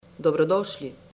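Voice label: Welcome, Slovenian; Virtual Mobility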